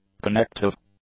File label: "connective", English; adjectives